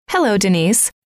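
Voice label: "hello", female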